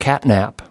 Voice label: "catnap", us